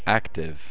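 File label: "active", USA, male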